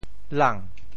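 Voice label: "lang2", Teochew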